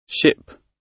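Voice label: "ship", British English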